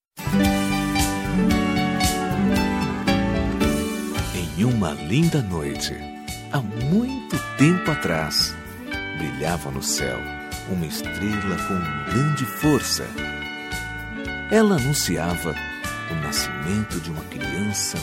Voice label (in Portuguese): Feliz Natal Com Conto de Natal pra Crianca M